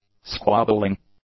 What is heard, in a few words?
"squabbling", english; nouns